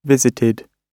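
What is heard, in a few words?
"visited", British English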